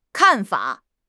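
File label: "kan4 fa3", Mandarin Chinese; HSK4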